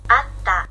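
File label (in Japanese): "あった" Japanese; あります・います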